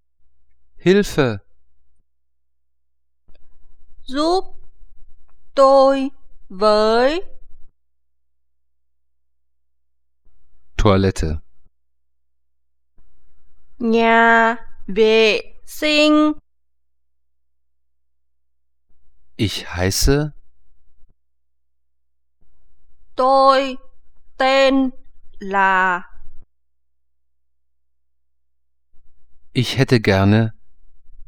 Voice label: vietnamesisch

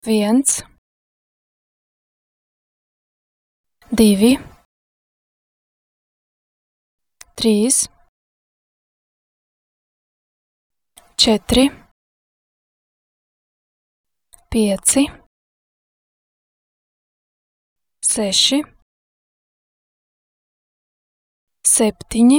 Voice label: lettisch

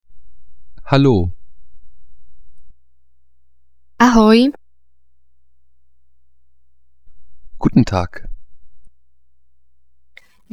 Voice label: slowakisch

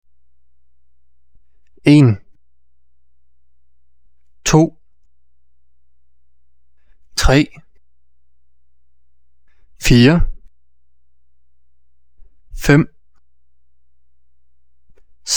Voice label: daenisch

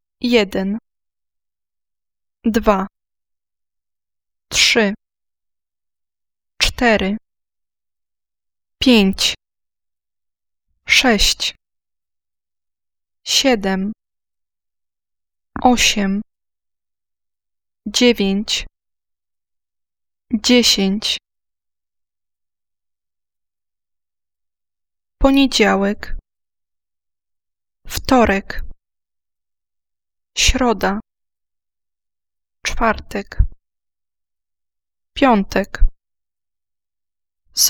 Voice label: polnisch

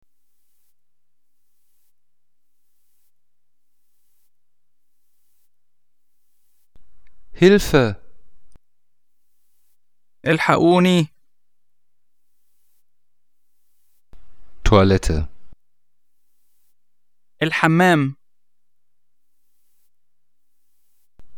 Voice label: aegyptisch

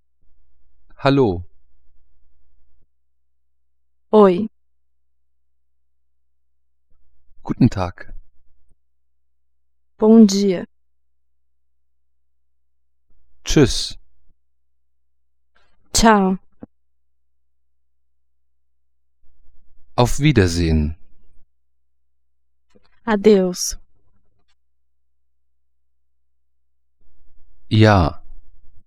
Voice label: brasilianisch

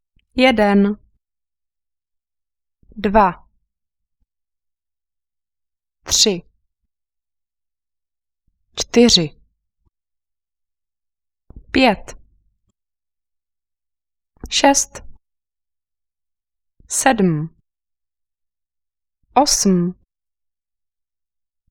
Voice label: Tschechisch

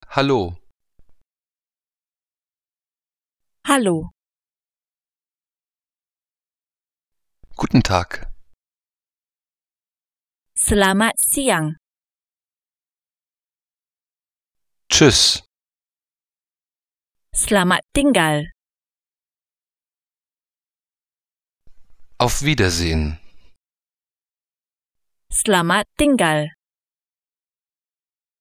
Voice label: malaysisch

